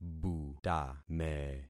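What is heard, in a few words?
"butame", concatenated syllables; Affixing experiment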